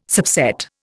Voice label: "subset", Indian